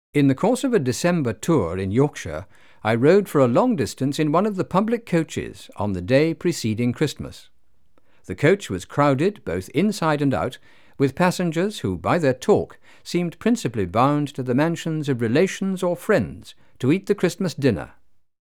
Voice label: Male Voice; SQAM